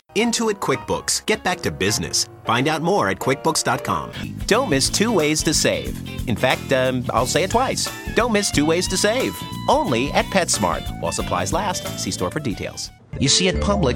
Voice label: commercial men